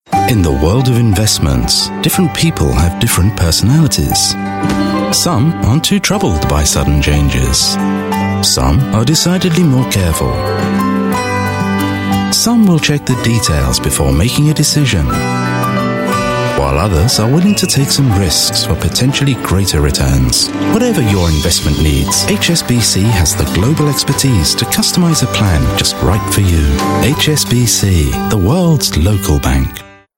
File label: UK English Train TVC, English UK Male; HSBC